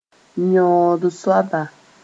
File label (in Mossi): "yõod soaba", moore